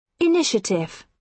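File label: "initiative", British English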